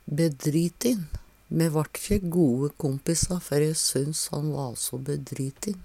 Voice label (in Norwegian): bedritin, Numedalsmål; Me vart`kje goe kompissa før e syns`n va so bedritin.; Adjektiv